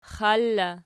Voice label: xal in, archi